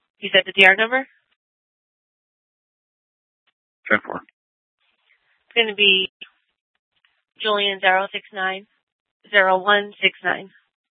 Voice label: Superstition Dispatch, Mesa PD